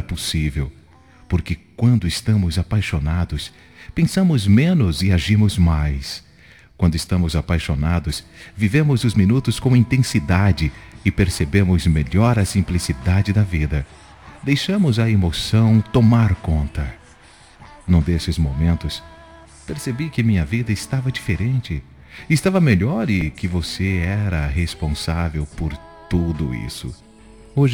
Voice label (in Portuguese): M, Telemensagem Romântica para Ex. Voz Masculina